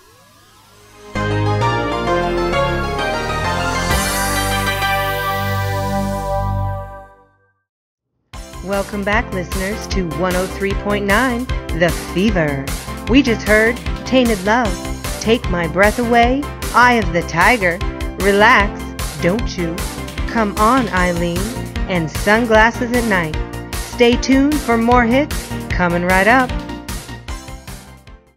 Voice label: Radio Ad